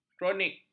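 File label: "kronik", Malay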